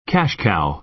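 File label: "cash cow", American English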